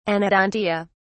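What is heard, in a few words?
"anodontia", British English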